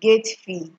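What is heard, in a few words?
"gate fee", Nigerian Pidgin, female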